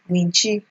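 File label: "winchi", Nigerian Pidgin, female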